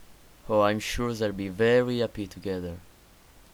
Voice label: breton voice auditions; skywind

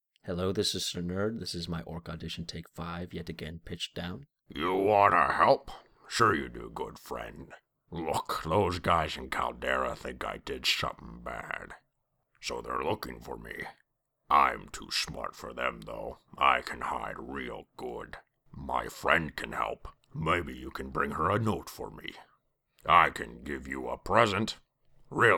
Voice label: nerd orc

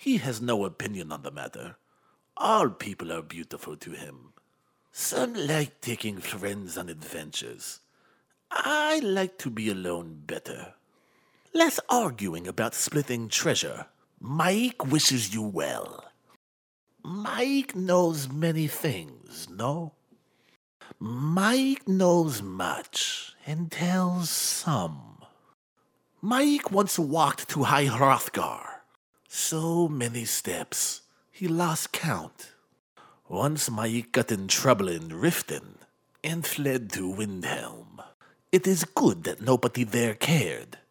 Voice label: khajiit audition

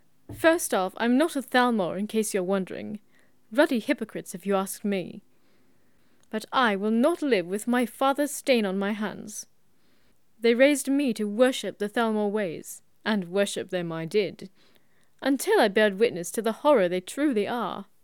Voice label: female altmer, high elf voice auditions

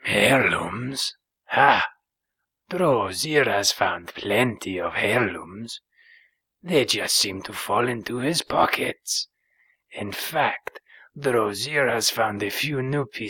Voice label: khajiit new mic, khajiit voice auditions

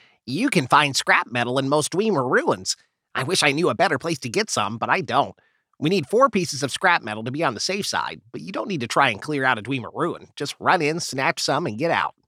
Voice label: wood elf, wood elf voice auditions

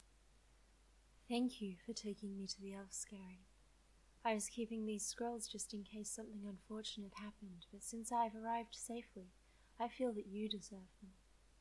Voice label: highelf, voice auditions

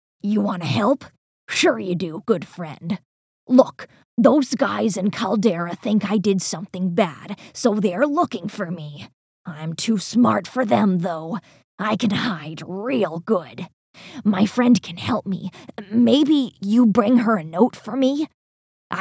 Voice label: orc

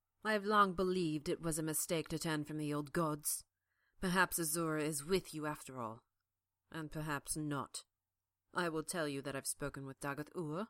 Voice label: telvanni dunmer female

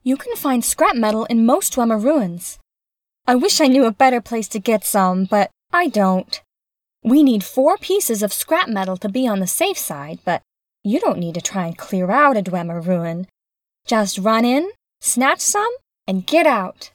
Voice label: bosmer, wood elf voice auditions

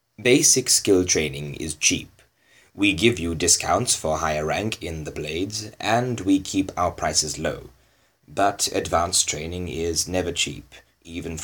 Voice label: sample, high elf voice auditions

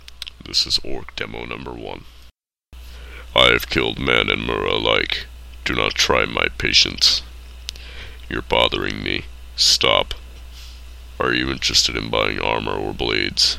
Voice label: orc, orc voice auditions

